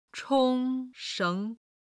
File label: "chong1 sheng2", Chinese